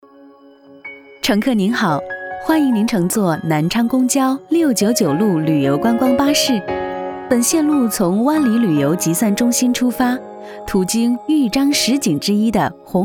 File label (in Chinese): 女 景区解说 旅游巴士